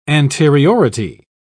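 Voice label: "anteriority", British English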